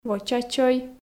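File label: "воча чой", Komi, female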